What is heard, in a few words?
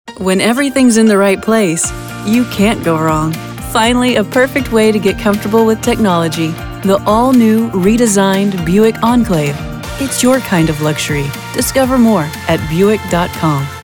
Commercial; Buick